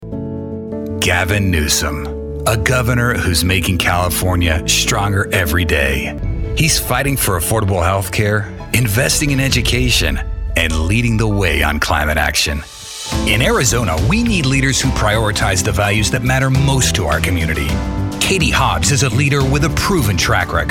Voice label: Political DEMOCRAT Voices English MALE

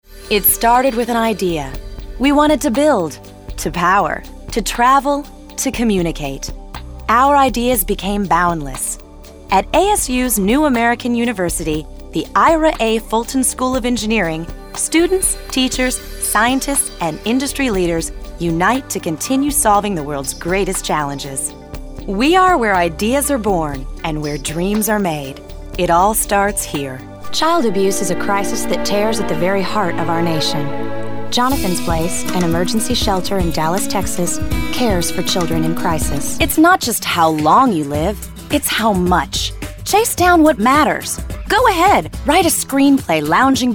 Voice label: Corporate, Female Voice Over

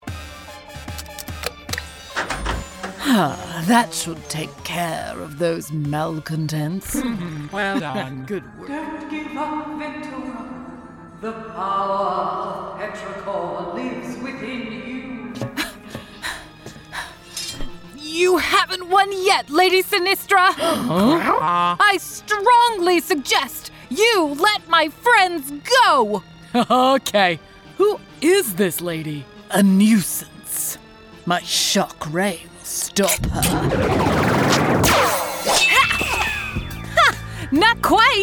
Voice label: Animation, Female Voice Over

